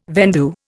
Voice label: "vendue", Indian